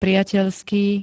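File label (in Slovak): "priateľský", Slovak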